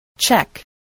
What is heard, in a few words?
"check", English, voice